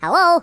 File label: "Hello", voices Mobster